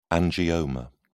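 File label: "angioma", British English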